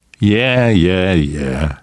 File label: you are welcome, namvet, survivor voice; Left 4 Dead 2; left4dead2 dlc3